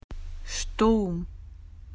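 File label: "storm", Australian English; PictureDictionary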